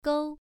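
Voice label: "gou", Mandarin Chinese, first tone, pronunciation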